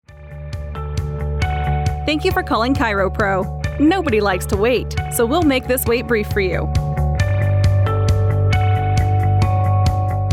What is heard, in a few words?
On Hold